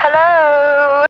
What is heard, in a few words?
"hello", female; Voice; expletives